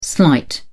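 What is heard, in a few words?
"slight", British English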